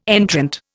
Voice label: "entrant", Indian